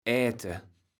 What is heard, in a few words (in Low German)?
"äte", kaevels platt